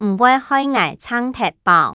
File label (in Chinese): 你會害𠊎撐忒飽, 客語